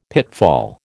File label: "pitfall", American